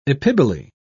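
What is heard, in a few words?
"epiboly", English, British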